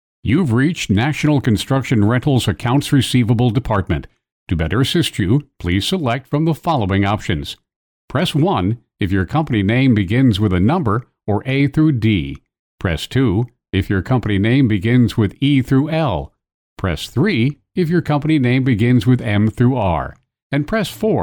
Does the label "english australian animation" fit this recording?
No